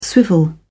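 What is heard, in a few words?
"swivel", British English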